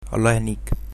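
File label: bon appetit, Egyptian Arabic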